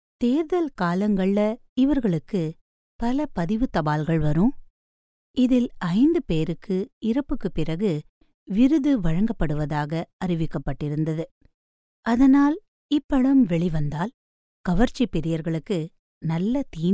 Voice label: TAMIL FEMALE